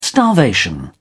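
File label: "starvation", British English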